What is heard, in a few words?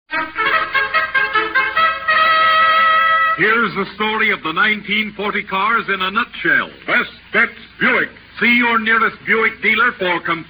Vintage Commercials; Buick